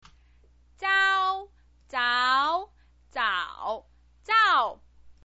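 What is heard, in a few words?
"zao", Mandarin Chinese pinyin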